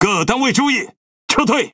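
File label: Chinese (Taiwan) Speech Quick Commands Back; World Of Warships; ZH TW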